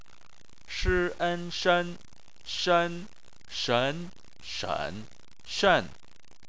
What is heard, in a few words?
"shen", chinese